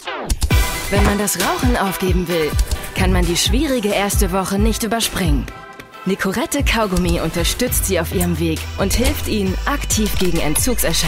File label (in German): tv spot nicorette kaugummi